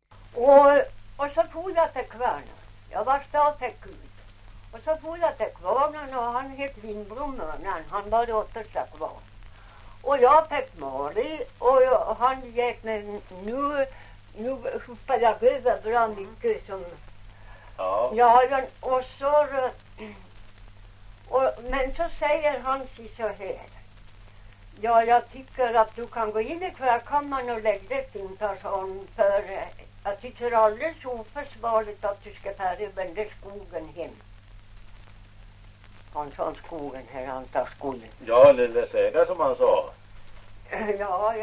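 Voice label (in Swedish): Ovansjö Gästrikland, svenska sagor dialekter; Folkminnen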